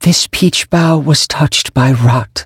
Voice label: defeat, en; cookie run